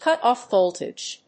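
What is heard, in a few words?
"cutoff voltage", English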